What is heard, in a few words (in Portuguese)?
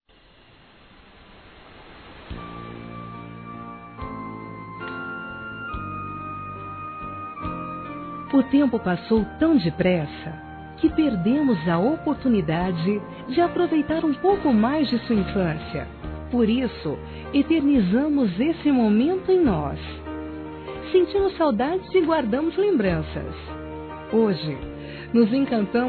FILHO (F)